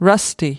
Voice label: "rusty", us